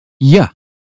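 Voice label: "yes", British English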